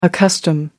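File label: "accustom", British English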